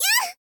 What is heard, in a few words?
princess damage, voice